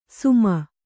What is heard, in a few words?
"ಸುಮ", Kannada